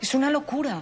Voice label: Voice Acting es F

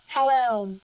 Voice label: Voice Acting es

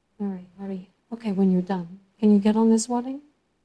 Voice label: Voice Acting es

